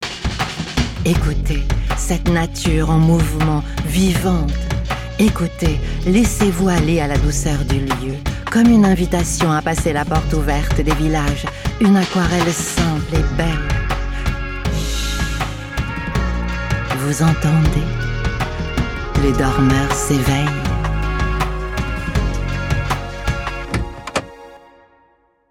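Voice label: French, Narration; GoLocalise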